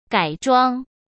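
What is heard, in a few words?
"改装", Mandarin Chinese; chinese-flashcards; hsk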